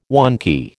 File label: "wonky", American